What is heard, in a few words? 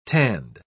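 "tanned", British English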